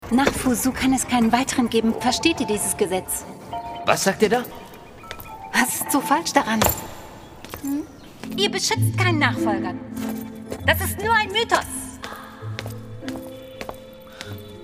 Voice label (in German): Synchron Charge Rolle Kriegerin Fantasy, sprecherin